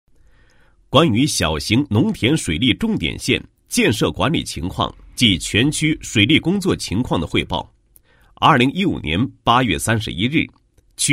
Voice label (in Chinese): 男 工作汇报; 小农水重点县汇报